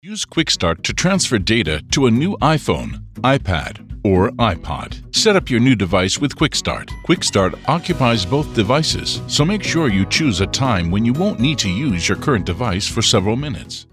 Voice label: explainer elearning Eng USA Male E-learning

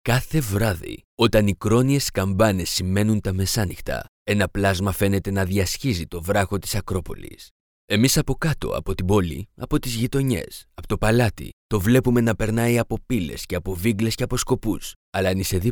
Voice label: Audiobook Greek

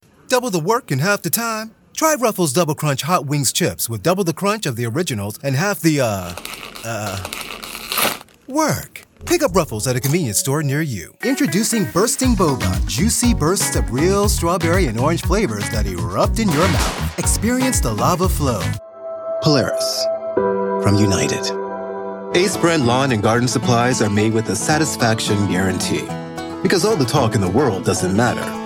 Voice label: Commercial VO

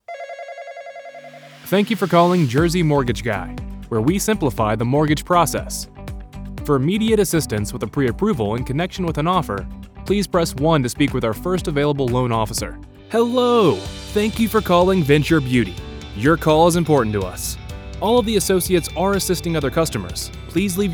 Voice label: IVR Straight

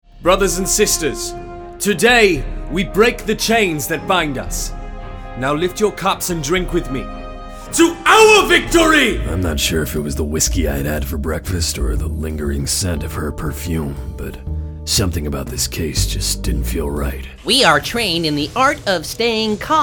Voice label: Character

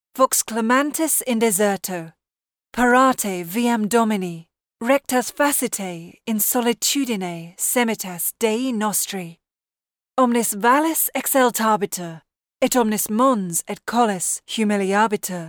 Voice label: Latin; Museum of the Bible

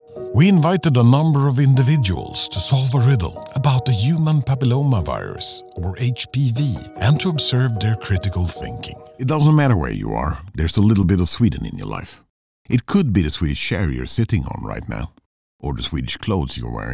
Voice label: English

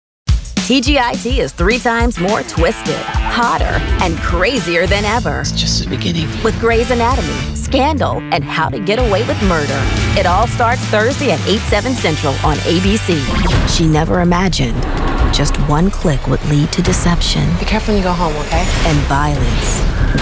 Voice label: Promos

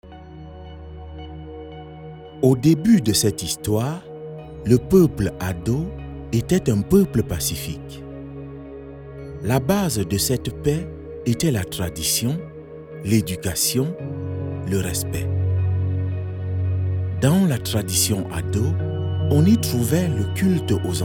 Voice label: FR African

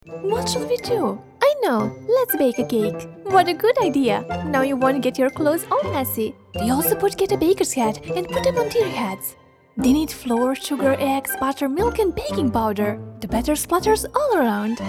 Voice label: Character English Child Voice

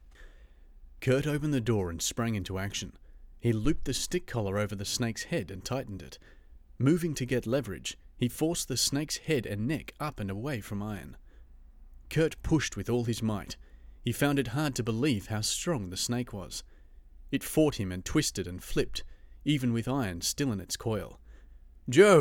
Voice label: audio book

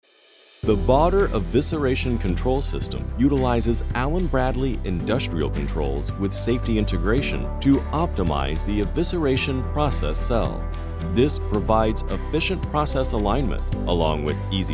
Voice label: Explainer Video; English (British)

